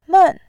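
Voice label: "men", Mandarin, tone 4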